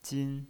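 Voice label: "jin", first tone, pinyin voice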